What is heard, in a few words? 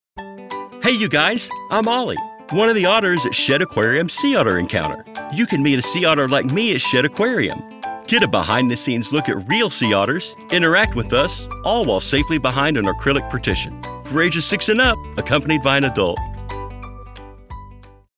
ELearning Kids